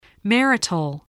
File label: "marital", spelling voice